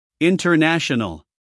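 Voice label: "international", American English, male; everyone-can-use-english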